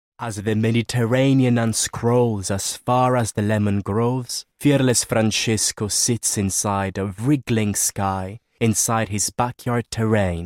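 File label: Italian Accented English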